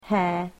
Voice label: "hair", English